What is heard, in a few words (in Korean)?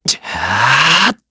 Vox Casting, kr; voices; heroes; Siegfried; kingsraid